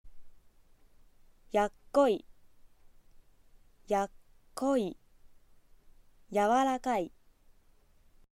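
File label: "yawarakai", Aizu dialect; aizuben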